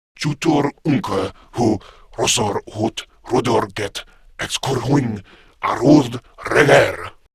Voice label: Patrol, Male